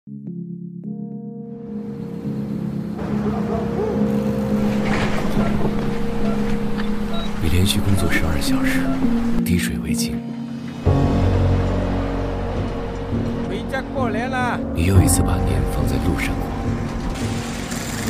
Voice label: 男 品牌广告; 美团品牌宣传; 致敬-奋斗的你

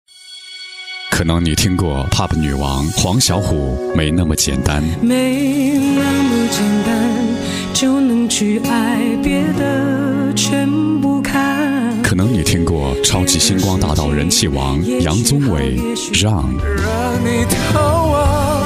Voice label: 男 宣传

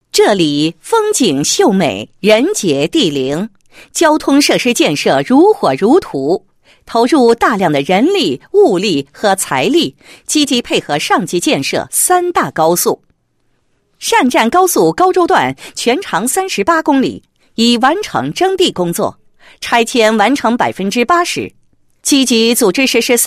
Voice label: 女 地区宣传, 配音; 古郡高凉宣传介绍

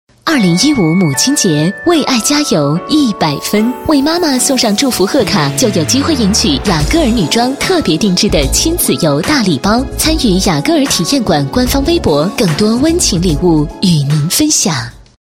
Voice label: 女4号 广告 母亲节, 国语女声配音

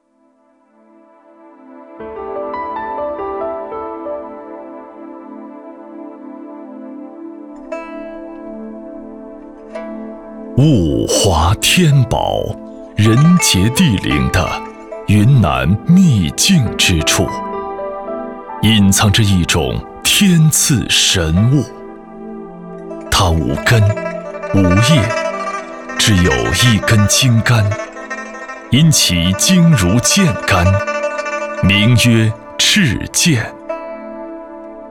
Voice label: 男 纪录片专题 大气深沉; 云南秘境

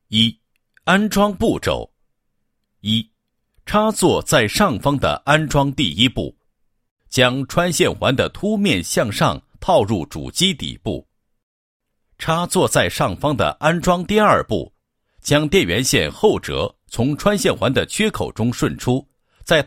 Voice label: 男, 解说, 替换原有水龙头安装步骤

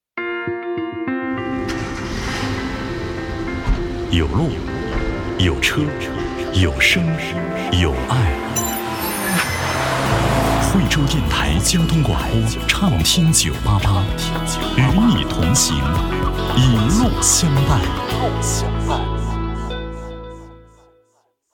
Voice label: 男 电台, 国语男声配音, 浑厚磁性; 惠州988

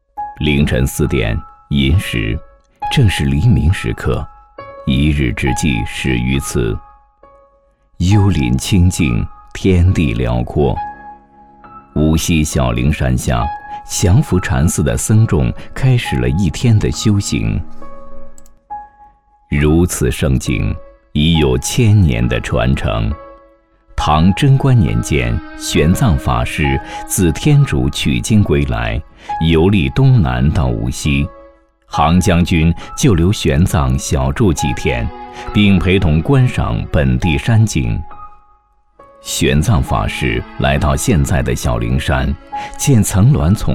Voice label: 男 祥符禅寺 纪录片

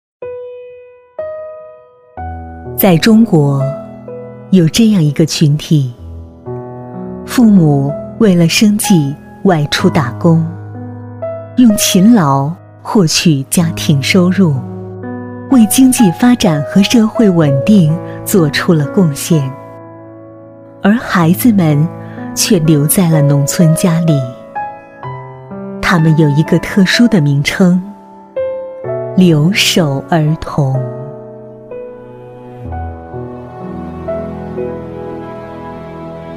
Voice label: 女1 专题, 国语女声配音, 磁性; 留守儿童

